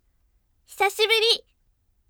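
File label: "hisashiburi", bright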